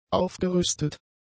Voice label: "aufgerüstet", German; adjectives

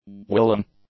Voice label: "willing", english; adjectives

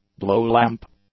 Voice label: "blowlamp", English

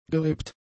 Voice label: "gerippt", German; adjectives

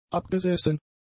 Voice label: "abgesessen", German; adjectives

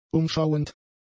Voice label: "umschauend", German; adjectives

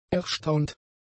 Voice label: "erstaunt", German; adjectives

